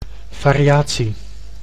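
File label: "variatie", Dutch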